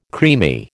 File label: "creamy", American